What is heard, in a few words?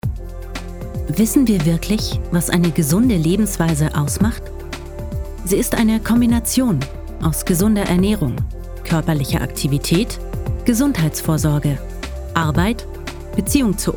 Healthy Lifestyle Deutsch